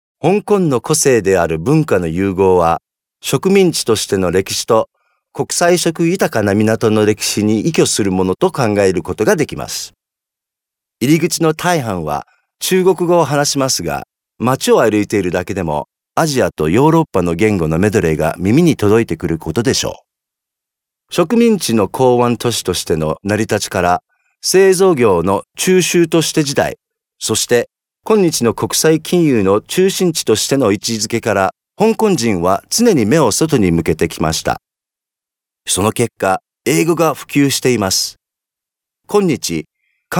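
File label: Japanese male corporate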